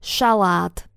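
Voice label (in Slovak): "salat", Slovak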